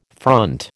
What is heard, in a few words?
"front", American